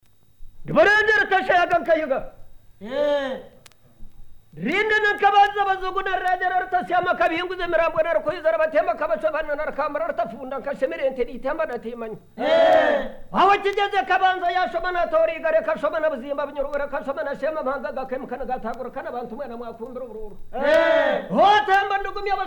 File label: Ekyevugo Hima, uganda